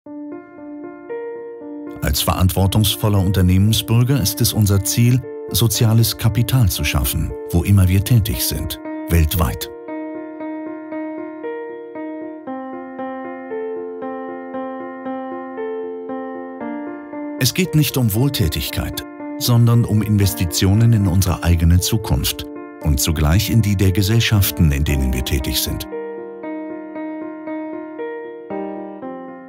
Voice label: TV Commercials General public, German voice-over, Sincere and Rassuring; Deutsche Bank